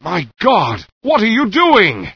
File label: scared; Source Engine; bshift; rosenberg